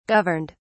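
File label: "governed", English, British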